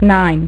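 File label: "nine", female; MacCribbage; InterStellar MacCribbage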